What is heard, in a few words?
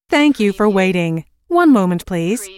US female phone prompt Thank you for waiting - One moment please; lynne publishing